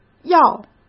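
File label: "药", Mandarin Chinese; chinese-flashcards; hsk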